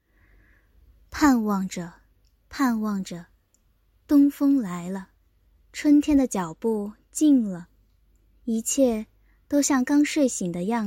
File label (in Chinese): 春天朗诵